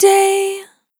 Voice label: "Short Day", vocal samples; Words